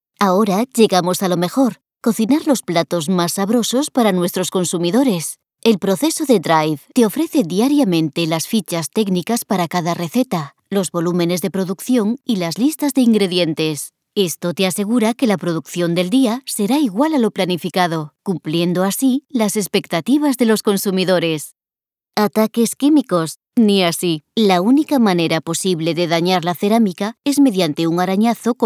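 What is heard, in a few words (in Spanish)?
e-learning, Spain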